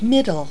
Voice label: "middle", voices